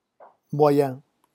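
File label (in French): "moyen", French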